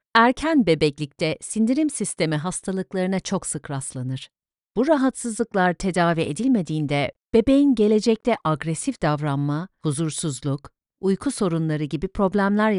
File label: turkish medical narration; voix off bilingue anglais/turc